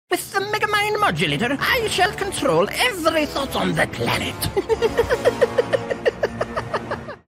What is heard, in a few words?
Character Evil Scientist